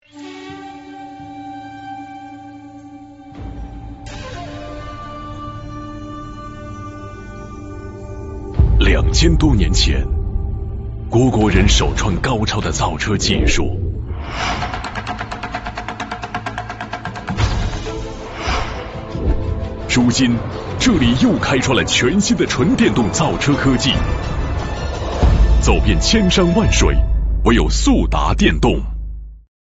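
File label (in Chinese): 男国大音-高端大气; 电动汽车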